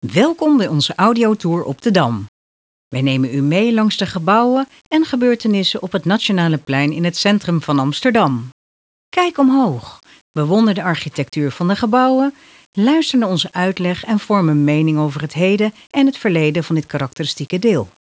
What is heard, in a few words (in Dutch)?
Audiotour